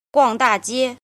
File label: "jin", Chinese